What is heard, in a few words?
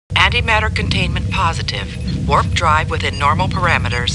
Star Trek Computer Voice; StarTrekLCAR